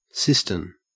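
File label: "cistern", English, Australian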